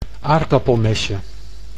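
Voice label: "aardappelmesje", Dutch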